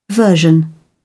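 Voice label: "version", British English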